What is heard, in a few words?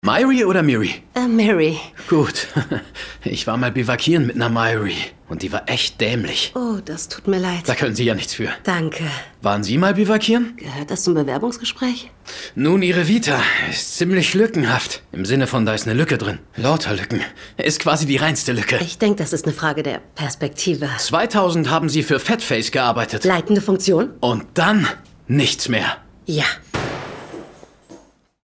Dubbing; backtolife